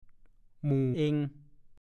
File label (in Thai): "หมู่เอง", ภาษาโคราช; พวกเรา